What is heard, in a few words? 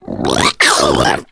female boomer alert; source engine; left 4 dead 2